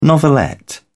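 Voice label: "novelette", British English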